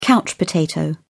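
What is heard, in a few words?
"couch potato", British English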